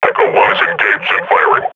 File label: firing, combine grunt; Half Life Model Pack